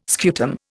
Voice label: "scutum", Indian

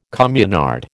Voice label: "communard", American

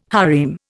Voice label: "harem", Indian

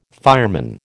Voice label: "fireman", American

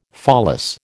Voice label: "pholas", American

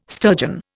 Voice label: "sturgeon", Indian

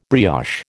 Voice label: "brioche", American

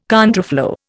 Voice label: "contraflow", Indian

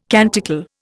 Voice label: "canticle", Indian

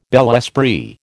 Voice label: "bel esprit", American

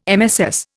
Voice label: "MSS", Indian

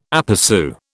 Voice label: "aperÇu", British

